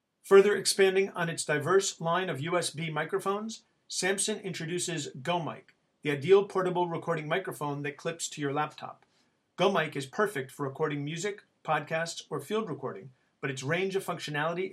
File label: Built in Microphone recording